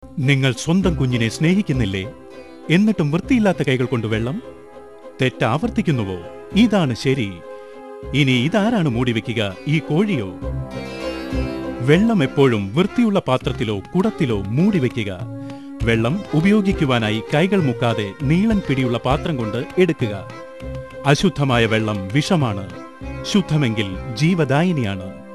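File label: Malay, foreign accent voice overs; foreign languages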